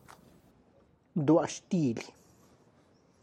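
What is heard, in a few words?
castilla bananas, Tlacochahuaya Zapotec; Tlacochahuaya Zapotec Talking Dictionary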